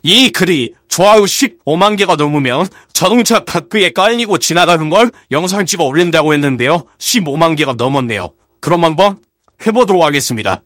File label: scout battle cry